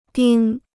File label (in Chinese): "丁", Chinese